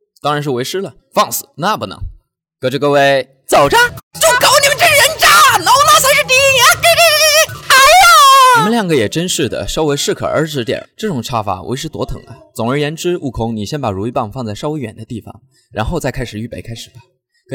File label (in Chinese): 搞怪视频配音